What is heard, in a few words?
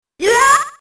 hurt; snes; smas; pluto